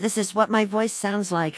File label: female old; xvasynth fallout4